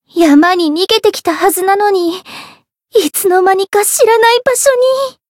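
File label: Voice story, Japanese; orleya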